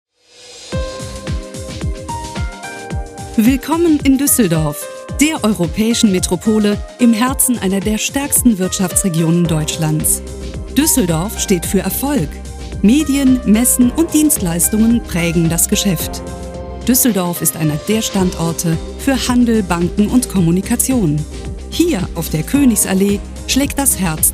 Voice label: industriefilm

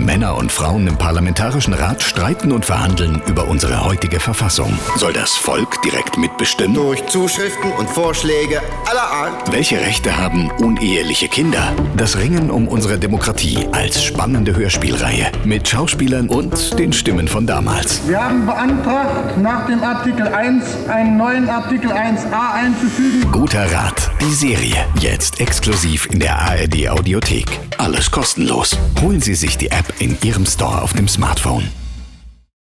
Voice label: trailer grundgesetz

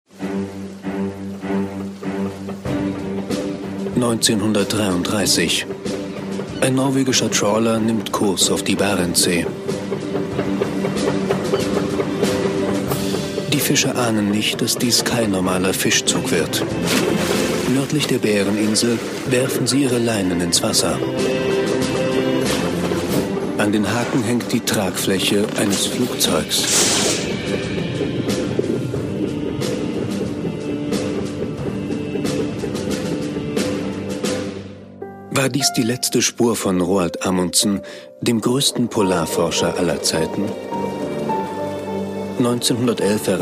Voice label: doku; arte

